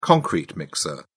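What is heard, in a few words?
"concrete mixer", British English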